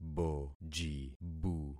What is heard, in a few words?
"bojibu", concat syllables; Affixing experiment